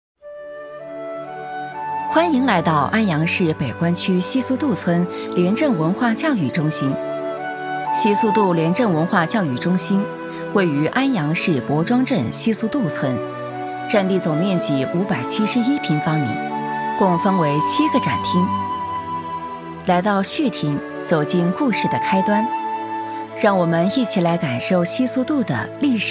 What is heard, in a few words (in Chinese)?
女 专题 文化教育中心